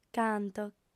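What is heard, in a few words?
"canto", Sardinian, female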